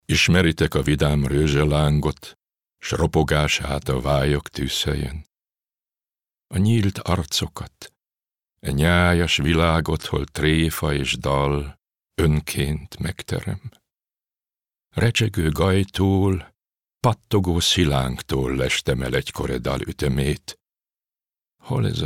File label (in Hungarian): ungarisch